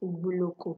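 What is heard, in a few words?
"ugboloko", Nigerian Pidgin, female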